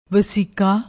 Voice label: "vasika", Punjabi